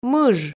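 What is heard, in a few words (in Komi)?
"мыж", Komi, female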